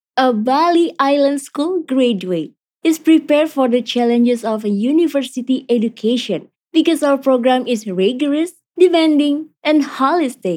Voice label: FORMAL ENGLISH

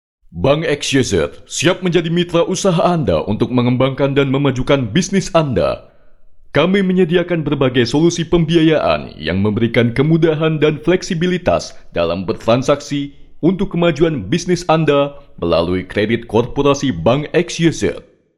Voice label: Formal Indonesia

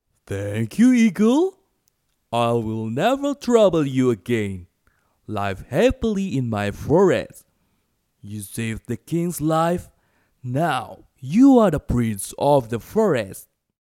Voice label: Character English

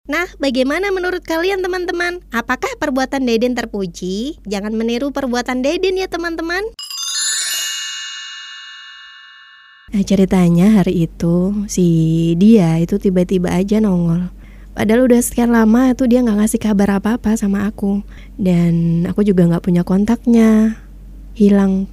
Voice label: Indonesia character

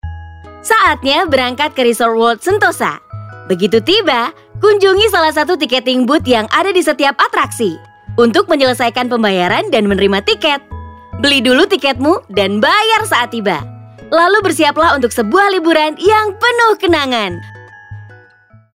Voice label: Casual Indo

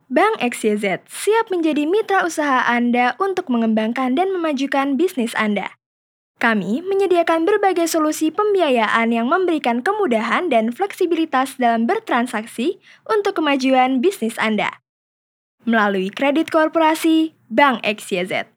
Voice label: FORMAL INDO

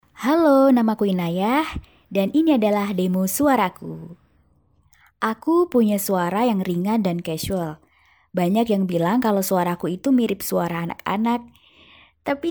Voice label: voiceover casual

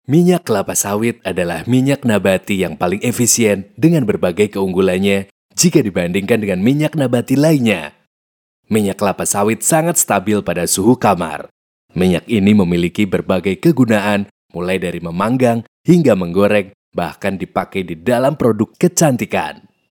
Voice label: FORMAL BAHASA INDONESIA, medium light

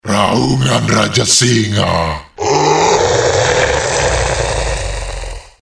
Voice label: Indonesia character

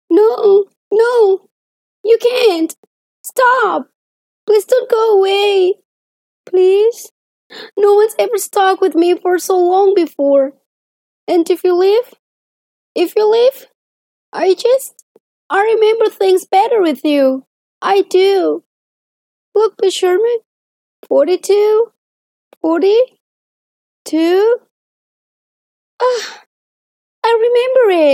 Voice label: ENGLISH CHARACTER, voice is very casual

